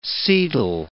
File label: "setal", English